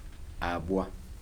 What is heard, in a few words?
"dog", Kibembe